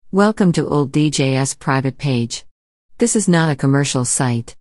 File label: female